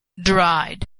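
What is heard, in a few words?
"dried", American English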